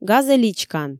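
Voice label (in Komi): "газӧ личкан", Komi, female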